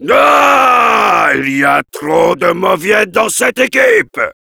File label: jeers, fr; Heavy